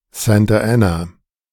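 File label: "Santa Ana", German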